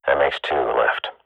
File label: radio bot kill count, swat; agents